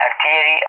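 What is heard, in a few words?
"artillery", English; DCS; Allied Flight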